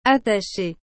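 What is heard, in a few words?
"attache", French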